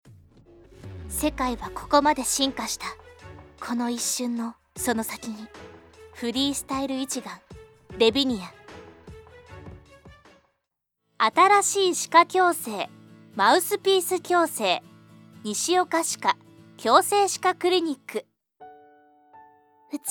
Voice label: japanese commercial